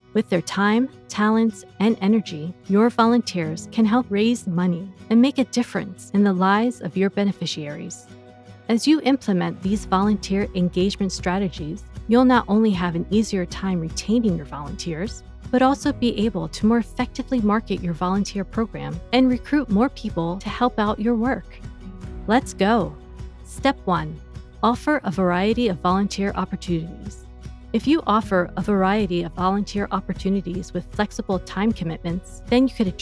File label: E Learning